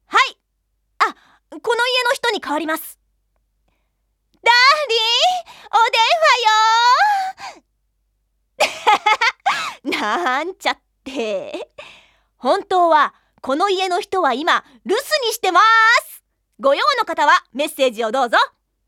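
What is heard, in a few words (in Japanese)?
おまけヴォイス「留守電編」; おまけヴォイス 津山菜々子; Ojousama Express original soundtrack vocal collection 1998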